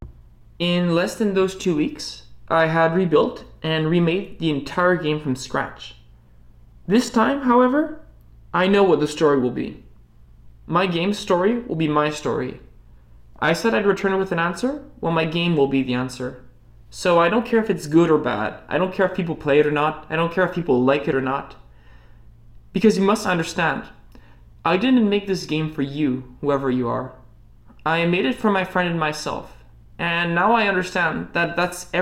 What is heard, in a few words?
voice, narration